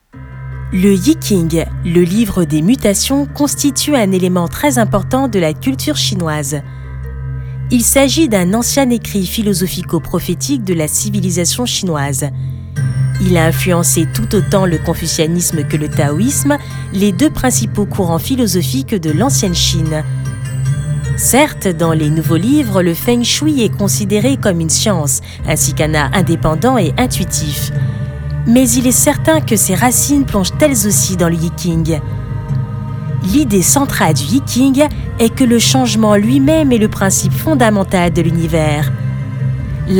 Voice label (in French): Ton de narration documentaire; LE YI JING